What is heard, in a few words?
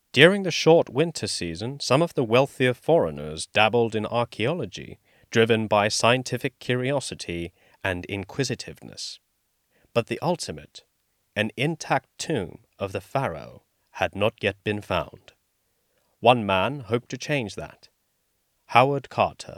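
Finnish accented English; GoLocalise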